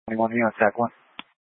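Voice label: Incident Tac 1, Scanner audio recording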